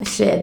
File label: "shit", shouts; Best Service; Hyper Dance